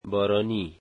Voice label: rainy, Dari